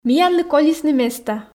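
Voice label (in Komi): миянлы колисны места, Komi, female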